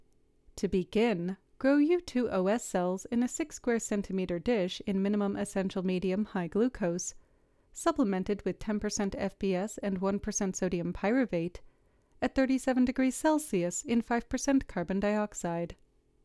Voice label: Voiceover